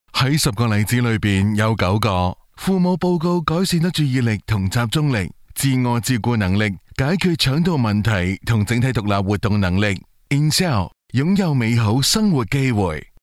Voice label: chinese cantonese voiceover locutor chino cantones